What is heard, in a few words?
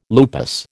"lupus", American